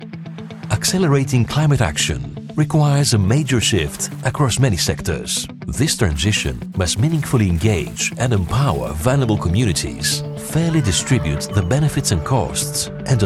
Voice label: English Neutral European Narration